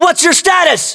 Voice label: status check, us